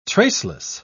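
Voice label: "traceless", British English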